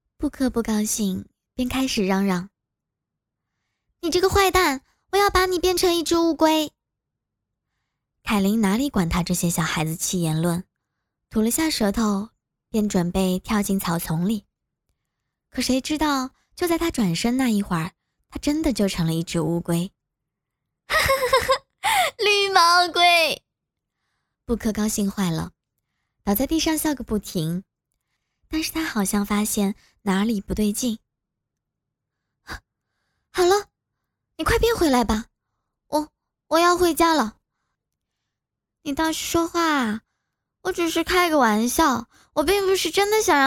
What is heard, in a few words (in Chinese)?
活泼类 小短片 配音